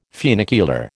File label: "funicular", American